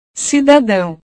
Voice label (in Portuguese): "cidadao", portugues